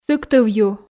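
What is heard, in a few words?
"Сыктыв ю", Komi, female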